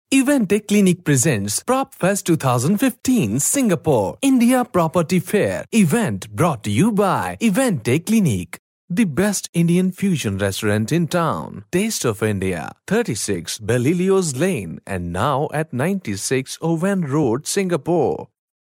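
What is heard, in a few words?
Punjabi, male, English Sample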